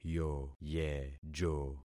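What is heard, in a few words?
"yoyejo", concatenated syllables; Affixing experiment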